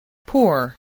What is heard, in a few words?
"pore", English